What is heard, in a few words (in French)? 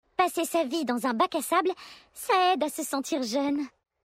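French Female Blague; Vulperin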